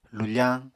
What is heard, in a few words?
"lufañ", Apatani